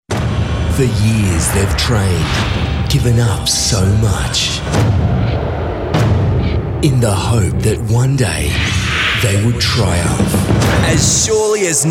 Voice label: promos